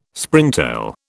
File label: "Springtail", British